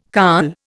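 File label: "carl", Indian English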